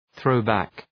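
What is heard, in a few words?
"throw back", English; (Επίθετο)● όμοιος (Ρήμα)● οπισθοδρομώ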